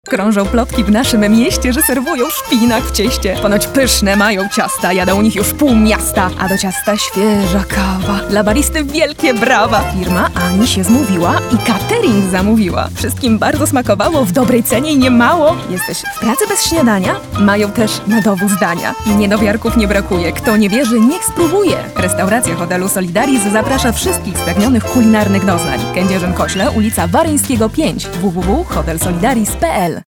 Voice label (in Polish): Reklama aktorska